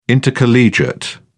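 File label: "intercollegiate", English, British English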